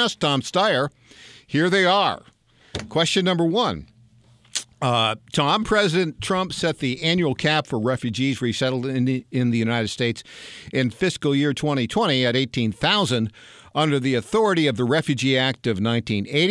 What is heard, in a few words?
Interview almost with cricket and chicken; Tom Steyer